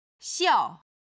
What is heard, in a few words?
"xiao4", chinese